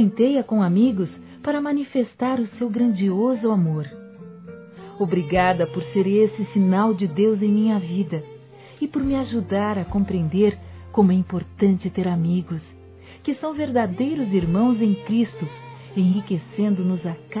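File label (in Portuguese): HOMENAGEM A AMIZADE, feminino; Telemensagens; Telemensagens 2017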